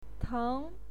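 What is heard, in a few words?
"teng", Mandarin Chinese, second tone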